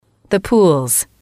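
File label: the pools, female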